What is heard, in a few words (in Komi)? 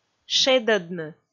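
"шедӧдны", Komi, female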